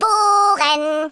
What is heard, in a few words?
boring, German